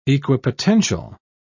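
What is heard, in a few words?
"equipotential", British English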